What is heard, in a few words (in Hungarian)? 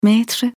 "métert", gps